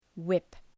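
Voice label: "whip", English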